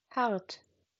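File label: "hart", German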